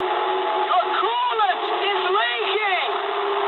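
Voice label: damaged water mood high, speech English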